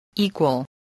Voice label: "equal", voice, english